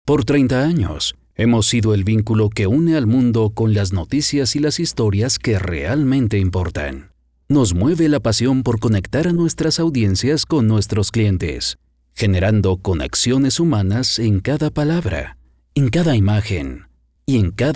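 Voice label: Spanish Latin America male voice over, narrative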